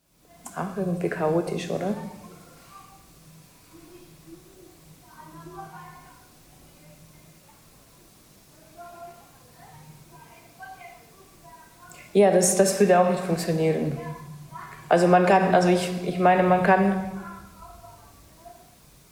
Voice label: estnisch

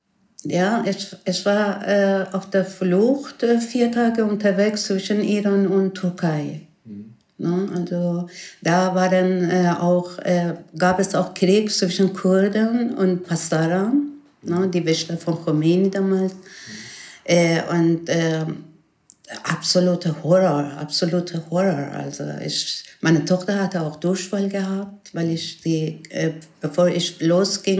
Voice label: persisch

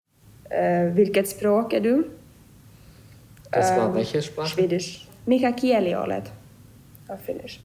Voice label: finnisch schwedisch finnland

